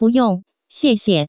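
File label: buyong xiexie, Taiwan Mandarin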